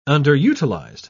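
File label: "underutilized", British English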